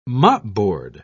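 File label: "mopboard", British English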